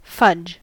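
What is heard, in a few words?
"fudge" English, US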